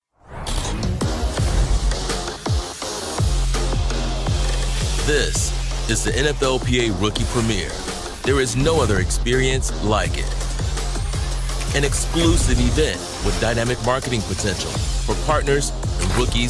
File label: english american announcements